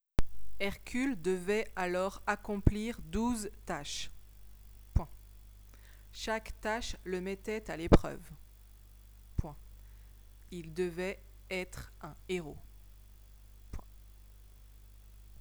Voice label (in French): dictee